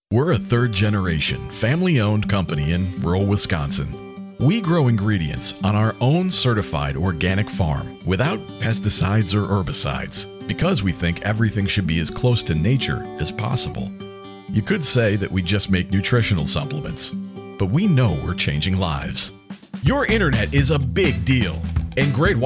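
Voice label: Corporate Narration, Warm, approachable, dynamic voiceover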